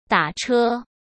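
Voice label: "打车", Mandarin Chinese; hsk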